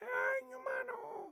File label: Pig Chef, Voices